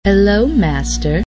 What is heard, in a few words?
hlas hello